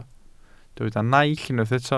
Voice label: "naill", welsh